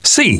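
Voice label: "yes", male; TALKNOW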